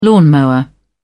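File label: "lawnmower", British English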